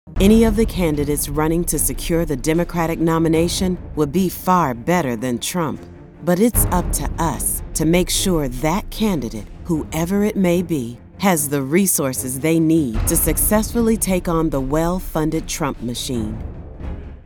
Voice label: Attack, voiceover for political attack ad; Dump Trump